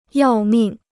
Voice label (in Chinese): "要命", Chinese